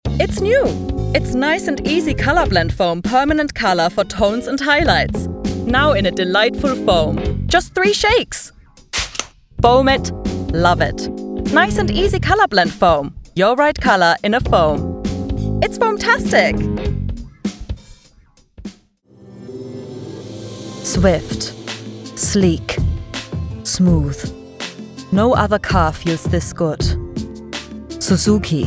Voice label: Accented English Commercial; German